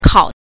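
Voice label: "kao", Mandarin Chinese pinyin, neutral tone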